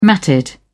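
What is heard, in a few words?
"matted", British English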